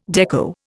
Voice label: "dekko", Indian